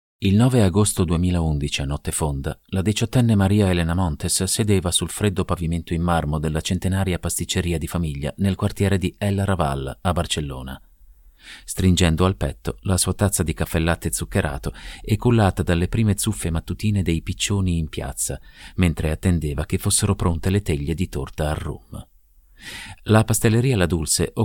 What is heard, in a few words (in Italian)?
lettura narrazione